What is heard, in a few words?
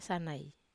"sanai", Wamesa